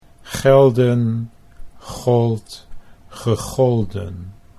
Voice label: "gelden gold gegolden", Dutch

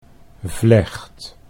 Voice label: "vlecht", Dutch

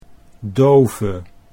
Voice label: "dove", Dutch

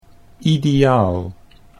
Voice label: "ideaal", Dutch

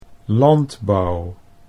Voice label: "landbouw", Dutch

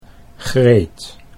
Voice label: "Greet", Dutch

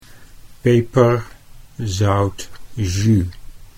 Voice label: "peper zout", Dutch

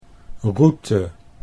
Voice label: "route", Dutch

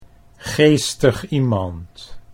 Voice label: "geestig iemand", Dutch

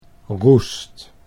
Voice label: "roest", Dutch

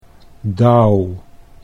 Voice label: "dauw", Dutch

